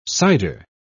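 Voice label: "cyder", British English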